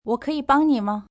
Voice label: May I Help You, Question, foreign, female: Mandarin; Talking Sound Effects; human voice